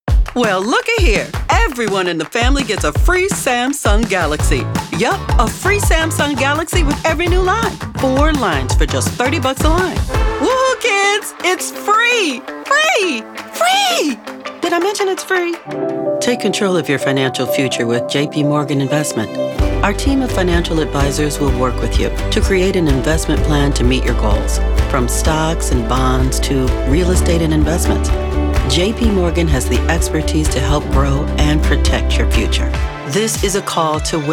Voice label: Commercial